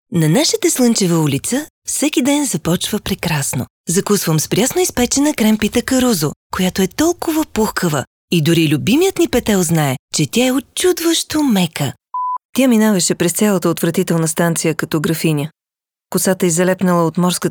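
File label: female Bulgarian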